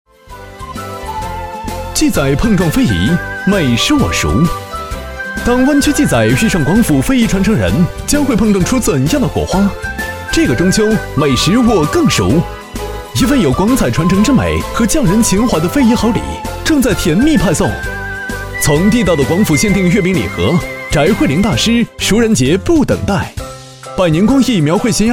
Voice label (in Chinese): 男 飞碟说欢快mg广告; 绿地房企